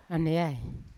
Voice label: "aneai", Yawa; waves; unat